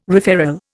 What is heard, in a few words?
"referral", Indian